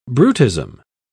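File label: "brutism", English, British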